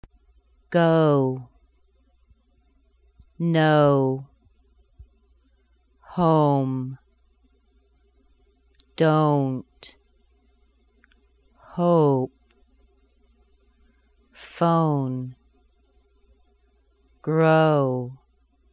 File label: ow words slow, American accent